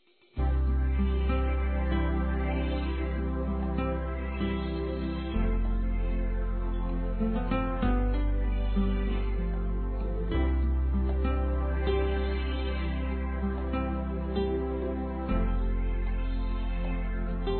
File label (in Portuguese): Telemensagens Amor Masc; Telemensagens 2017